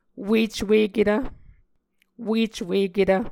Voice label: "wecweketv", Muscogee

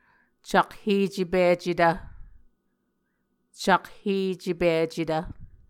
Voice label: "cakhecepicetv", Muscogee; Mvskoke Words with Voicing Consonants; cakhēcepicetv c / j; A Dictionary of Creek/Muskogee; SIL International

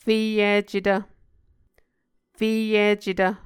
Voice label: "feyicetv", Muscogee

